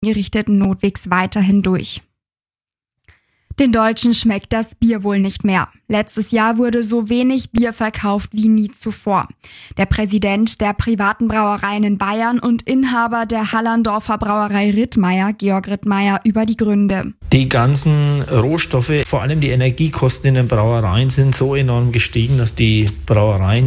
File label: Aircheck Radio; Radio Bamberg